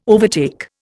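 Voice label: "overtake", Indian English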